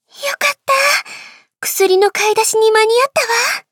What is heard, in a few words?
victory, jp